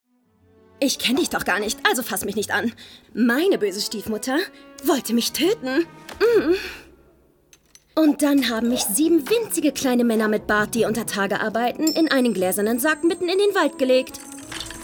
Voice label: Animation, German; Animation Mix; Lip-Sync (Synchron), Audio Drama (Hörspiel)

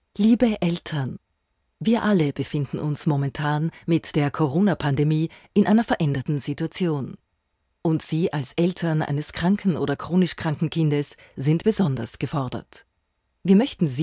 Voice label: Erklärfilm; AKH

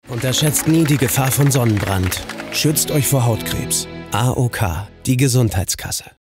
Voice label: Werbung, Commercial, German; AOK Baywatch; LOFT VOICES

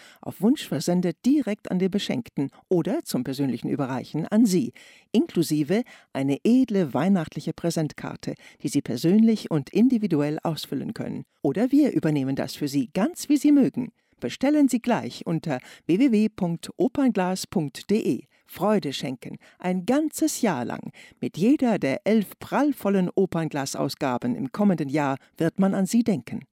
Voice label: WERBUNG Weihnachtsabo; Opernglas; LOFT VOICES

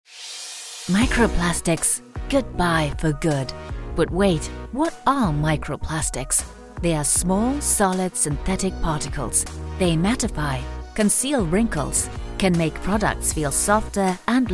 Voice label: Mikroplastik englisch; Catrice

